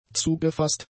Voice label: "zugefasst", German; adjectives